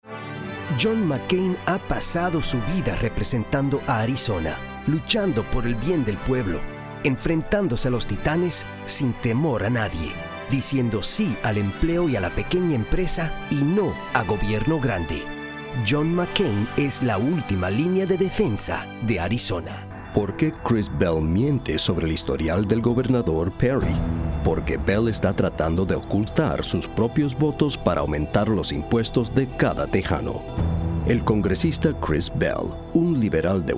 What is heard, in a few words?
REPUBLICAN SPANISH, Political; Male VOs